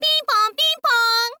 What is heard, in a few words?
"pinpon pinpon", info girl voice